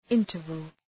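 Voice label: "interval", English; {‘ıntərvəl}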